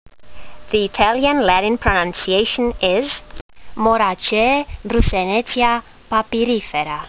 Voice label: latin; Broussonetia papyrifera